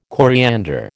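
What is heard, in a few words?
"coriander", American English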